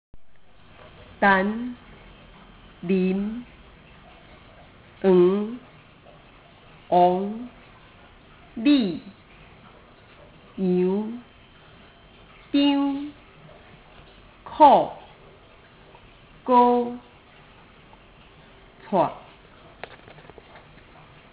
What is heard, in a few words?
Taiwanese